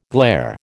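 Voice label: "glair", American English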